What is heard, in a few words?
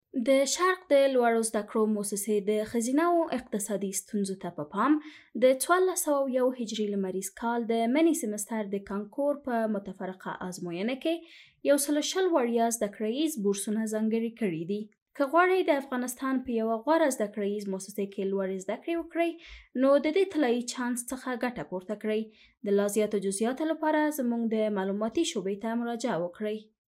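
Pashto, general; GoLocalise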